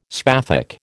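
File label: "spathic", American English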